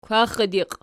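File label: "kwaxxidiqi" nominative, Archi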